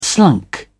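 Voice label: "slunk", English, British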